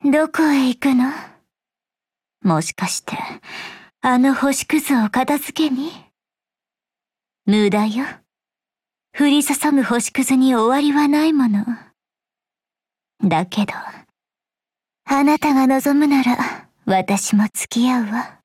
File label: VO DIALOGUE; hubble darkstar